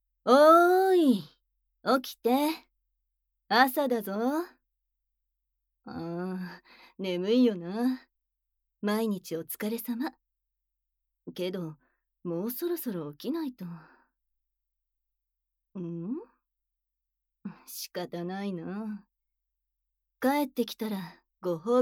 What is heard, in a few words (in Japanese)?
アラームボイス; むらた屋